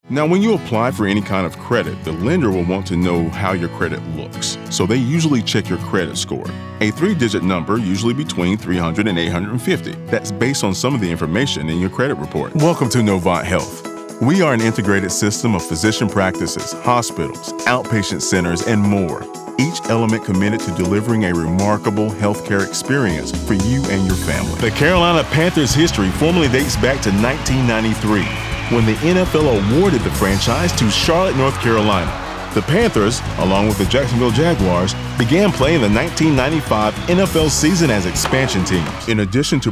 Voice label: narration